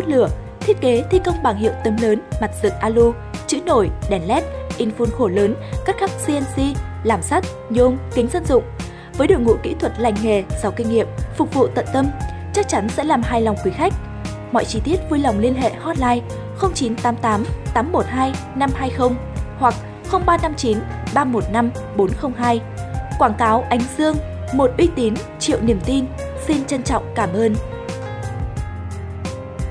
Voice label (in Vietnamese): Quang Cao